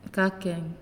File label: "Root", Naasioi